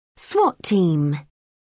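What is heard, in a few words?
"swat team", British English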